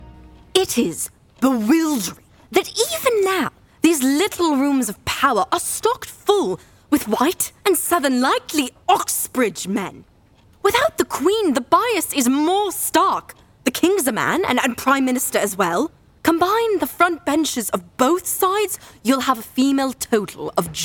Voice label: RP Accent